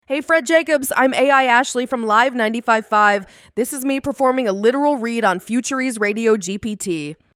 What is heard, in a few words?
AI literal read